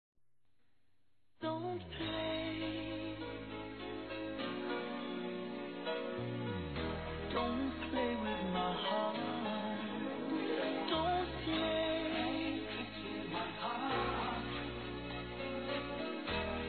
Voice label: Aniversário Adolescente Masculino; Aniversários; ANIV. DE ADOLESCENTE; Telemensagens

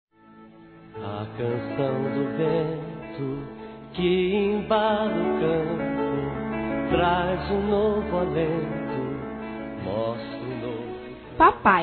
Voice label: Aniversário Pai Infantil Evangelico Feminino; Telemensagens

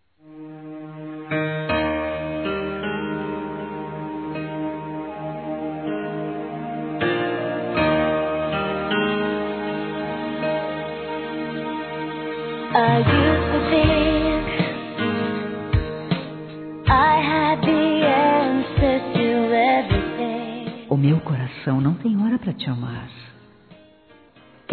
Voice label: Dia dos Namorados Feminino, Telemensagens; Telemensagens 2017

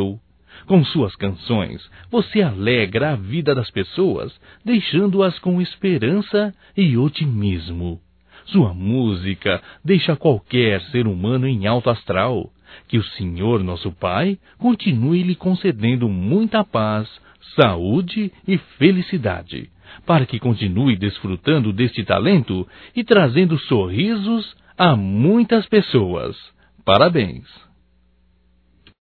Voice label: HOMENAGEM AO CANTOR, Telemensagens Só Voz masc; HOMENAGENS

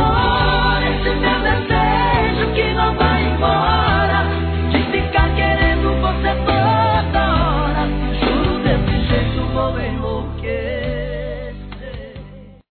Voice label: Deixe me amar você, M, Telemensagens, Amor, Masc

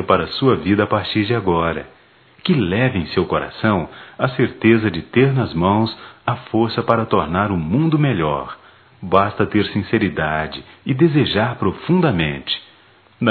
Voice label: Páscoa Neutra, Só Voz, masc; Telemensagens; Telemensagens 2017

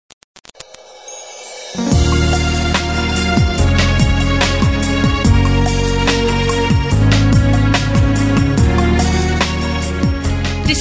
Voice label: PAQUERA FEM; Telemensagens; Conquistas Cantadas paqueras; Telemensagens 2017

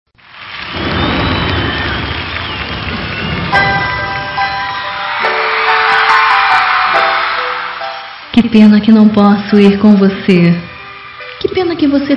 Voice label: ROM SERTANEJA BOA VIAGEM FEM; Telemensagens; Despedida Boas Vindas; Telemensagens 2017

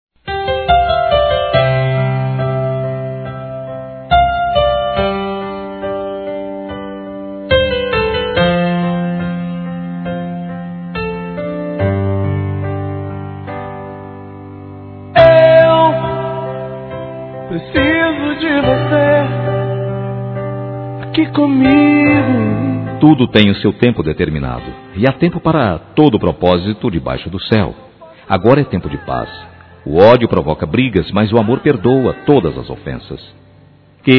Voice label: reconciliação, Telemensagens Gospel masc

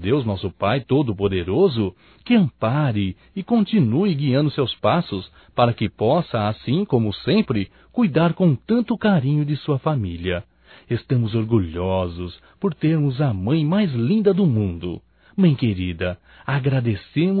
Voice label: Aniversário Mãe Nós Desejamos Masculino; Aniversarios; Telemensagens 2017

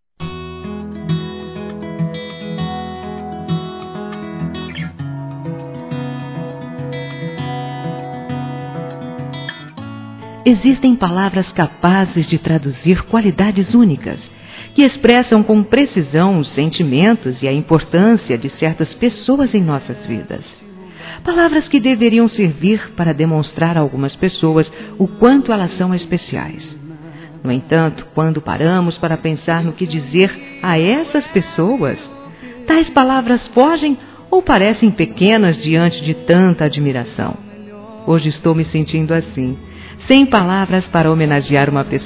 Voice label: homenagem geral fem, telemensagens

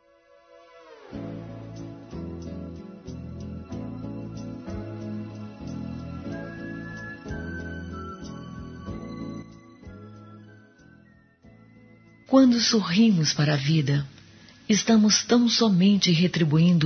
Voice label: Aniversário Otimismo F; Aniversários; Aniversários diversos

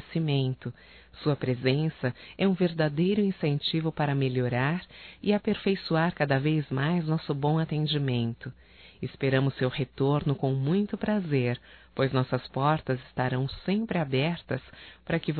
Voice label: CLIENTE CAMeLô(F); Profissionais; fem; Telemensagens 2017

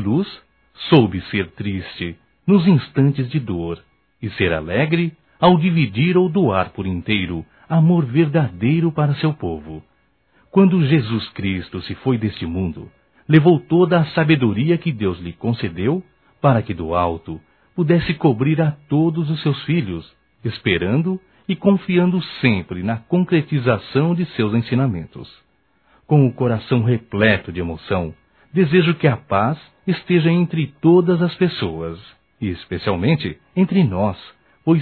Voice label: Páscoa Geral Masculino; Telemensagens 2017

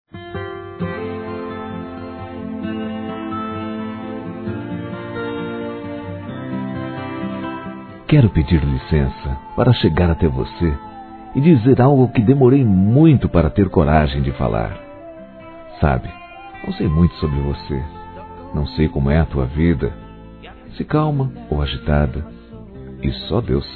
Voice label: Paquera Masculino; Telemensagens 2017

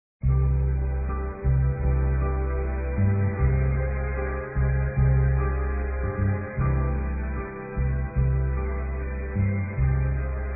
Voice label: Dia das Mães Simbolizando Criança Feminino; Telemensagens 2017

